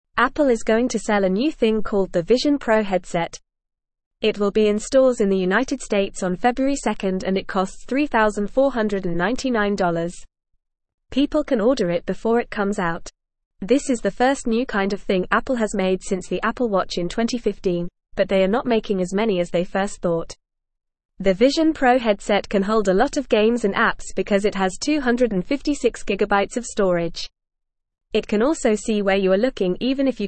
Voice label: Lower Intermediate FAST Reading, English